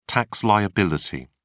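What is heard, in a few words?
"tax liability", British English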